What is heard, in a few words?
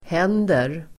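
"händer", Swedish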